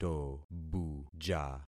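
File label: "tobuja", concatenated syllables; Affixing experiment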